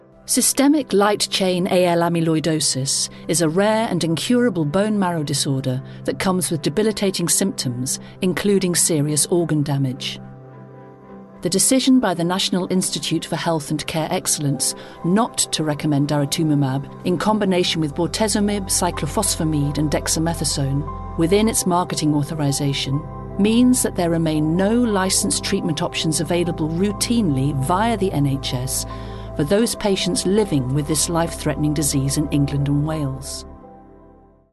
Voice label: Corporate